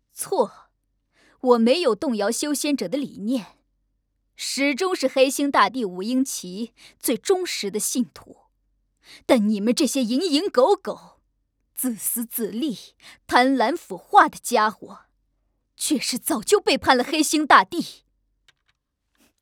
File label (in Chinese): 中年